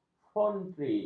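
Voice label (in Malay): "foundri", Malay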